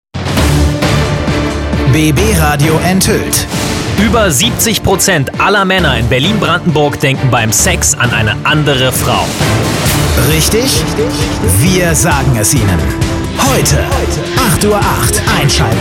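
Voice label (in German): Promo Frauen Männer; BBRADIO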